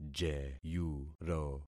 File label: "jeyuro", concat syllables; Affixing experiment